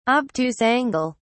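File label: "obtuse angle", British English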